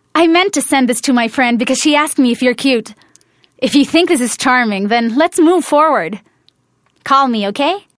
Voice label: English, Flirty, Confident, Playful

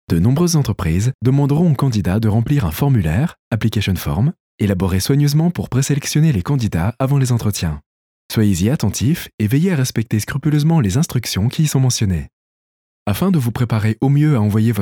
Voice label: french

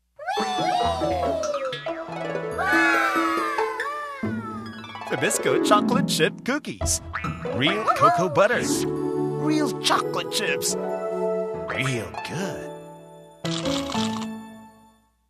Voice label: English (Enticing, Announcer)

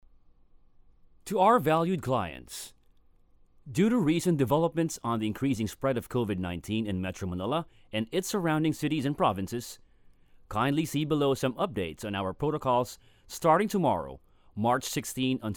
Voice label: Home Recording Sample

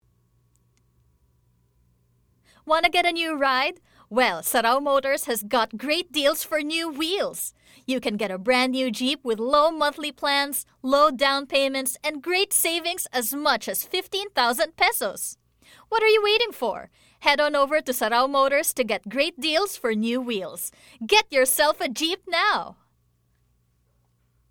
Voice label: English, Buddy, Casual, Fun

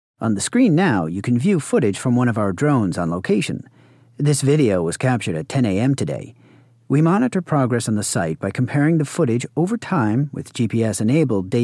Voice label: English

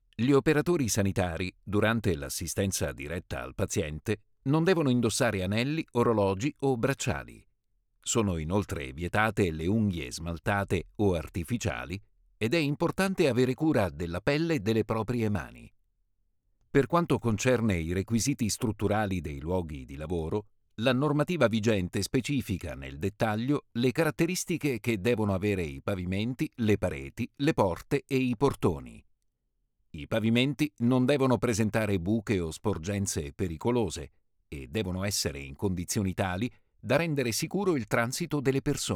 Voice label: Italian

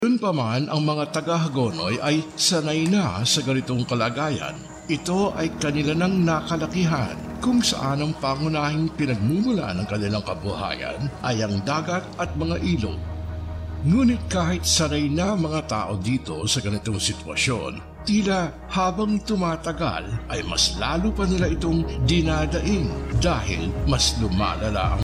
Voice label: Tagalog (Informative)

